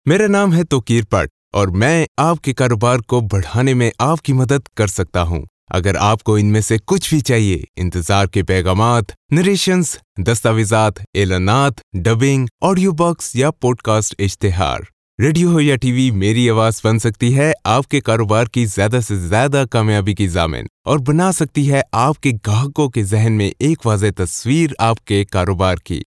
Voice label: Urdu